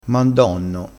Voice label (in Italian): "mandonno", crespinese